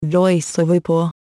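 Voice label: Norwegian VoiceOver On; EFI Audio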